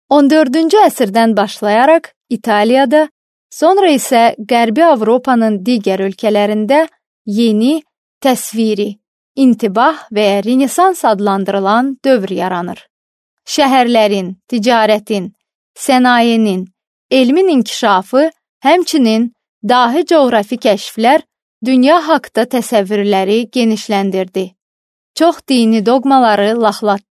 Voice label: azerbaijan female

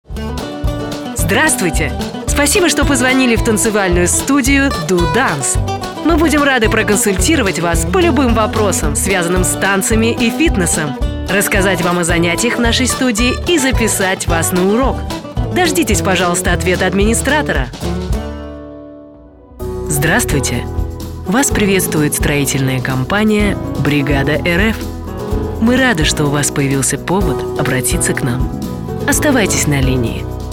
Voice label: rus

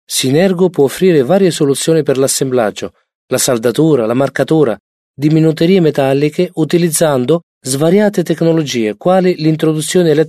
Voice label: italian male

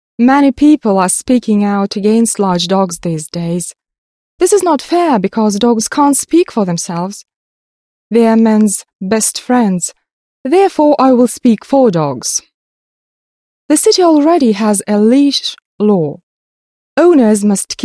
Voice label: british english female

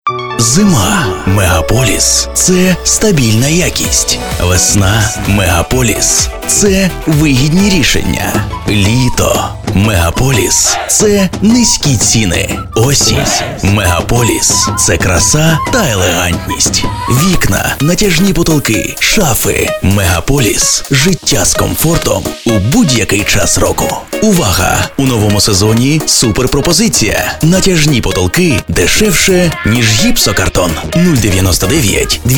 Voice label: ukraine male

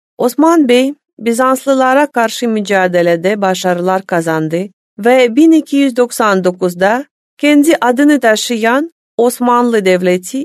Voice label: turkey female

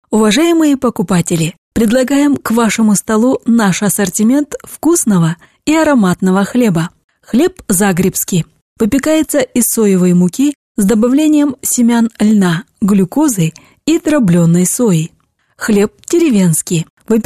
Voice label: kazakh russian female

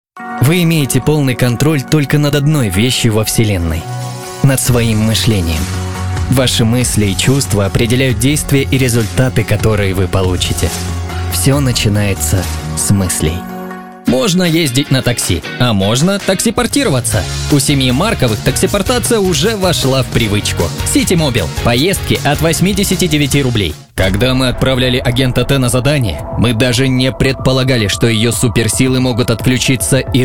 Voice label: RUS

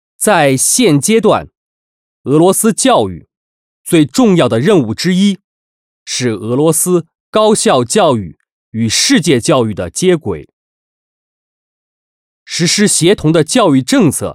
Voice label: chinese male

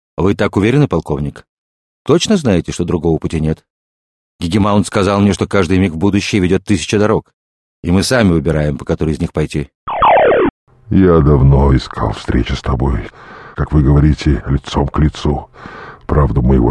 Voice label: russian male

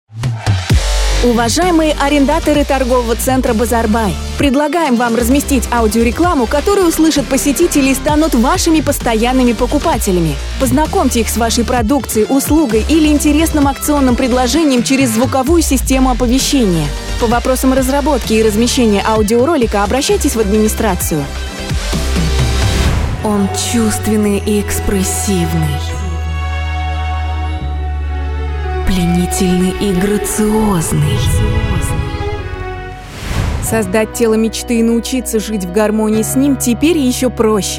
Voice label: RUS

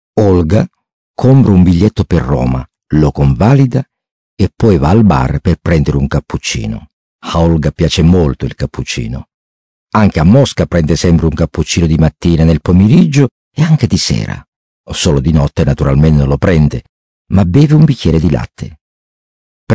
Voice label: italian male

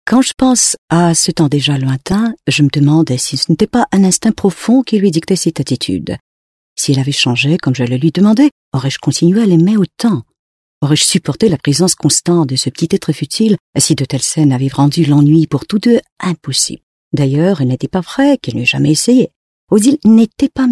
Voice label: french female

